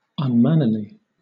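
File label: "unmannerly", British English; pronunciations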